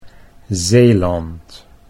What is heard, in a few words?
"Zeeland", Dutch